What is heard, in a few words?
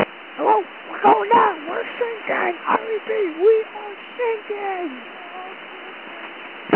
Coast Guard Radio Call; Coast Guard Ogunquit